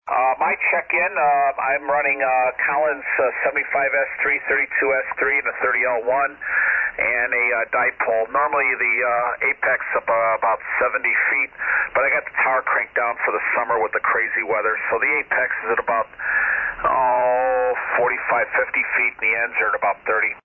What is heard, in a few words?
Collins 32S-3 75S-3C & 30L-1 Amp; Northbrook,IL.